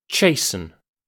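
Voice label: "chasten", British English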